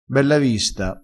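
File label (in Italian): "bellavista", dialettale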